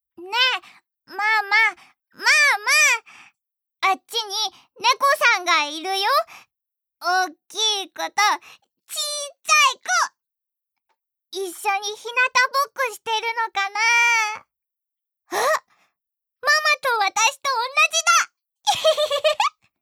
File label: Toddler, 無邪気な幼児